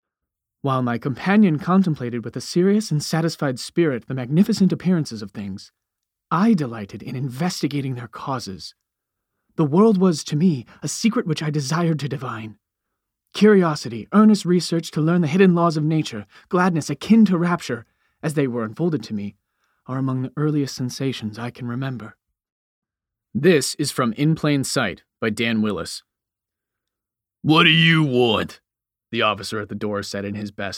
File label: Audiobook